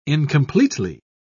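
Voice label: "incompletely", British English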